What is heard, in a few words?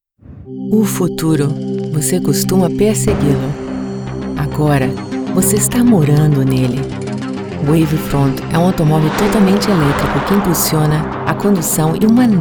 portuguese brazilian automotive